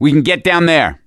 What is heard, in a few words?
misc directional, voice; gambler